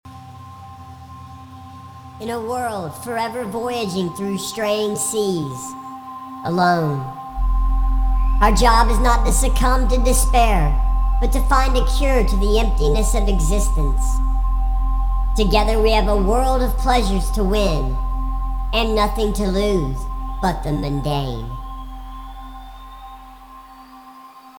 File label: Narration